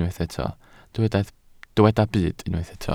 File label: "byd", Welsh